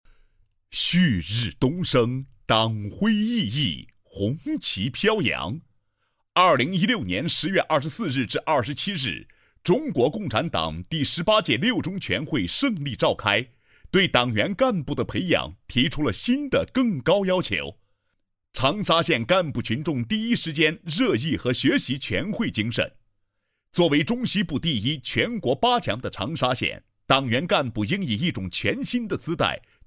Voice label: 男 工作汇报 汇报配音; 长沙县第一期青年干部训练营

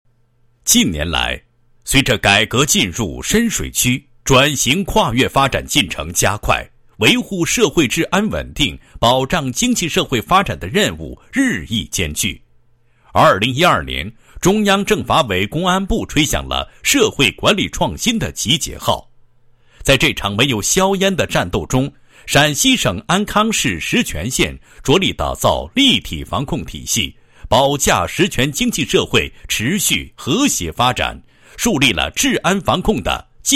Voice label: 男 汇报; 社会治安防控体系建设汇报

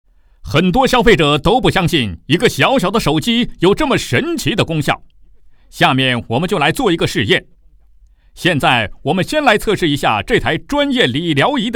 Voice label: 男 直销商品广告; 国语男声配音

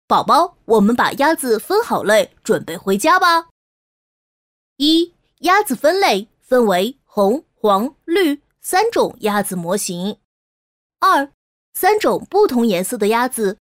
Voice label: 女 男童 音色

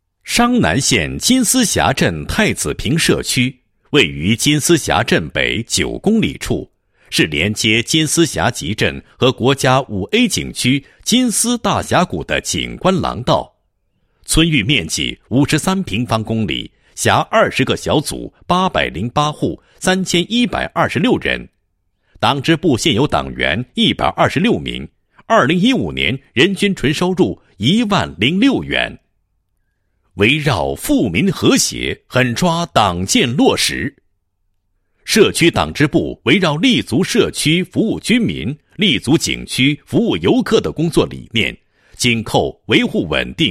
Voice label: 男 工作汇报, 优秀基层党组织工作汇报

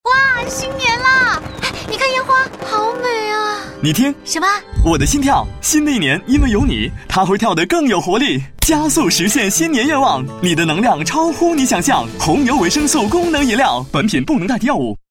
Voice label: 男 广告 广播 新年欢快, 国语男声配音; 红牛; 央视

